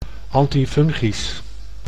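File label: "antifungisch", Dutch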